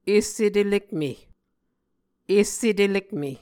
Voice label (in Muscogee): "esse telekme", Muscogee